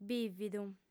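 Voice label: "bìvidu", lingua sarda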